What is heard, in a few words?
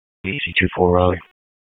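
Police Southeast District Dispatch; Raleigh; p25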